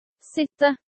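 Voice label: "Sitte", dog commands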